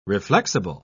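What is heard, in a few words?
"reflexible", English, uk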